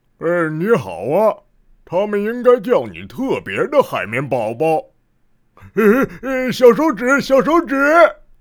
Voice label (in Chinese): 憨厚胖